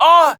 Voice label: Pain, Battle Barks; Warframe; BaroDefense